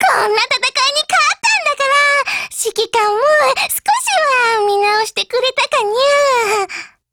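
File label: win, Japanese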